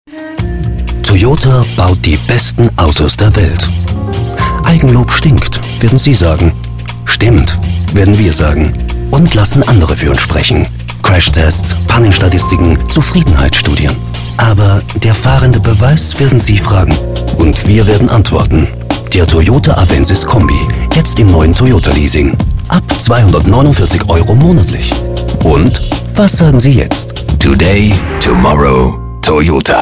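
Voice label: german Austrian male commercial; voice demos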